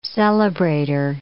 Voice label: "celebrator", English